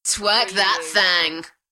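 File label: UK female, DJ Vocal; beanstalk audio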